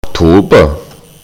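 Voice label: "October", German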